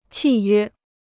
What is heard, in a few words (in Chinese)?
"契约", Chinese